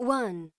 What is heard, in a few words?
lady; Samsung; GT-B7320 Omnia PRO ATT